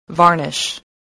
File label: "varnish", English